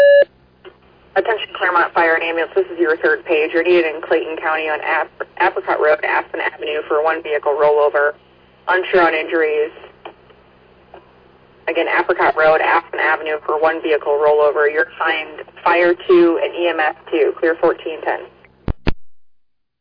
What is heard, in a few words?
Fire, dispatch; Clermont